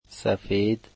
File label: "white", dari